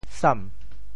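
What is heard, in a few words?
"sam2", Teochew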